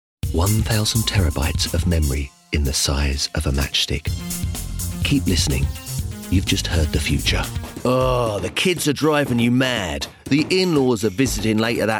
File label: Commercial VOICE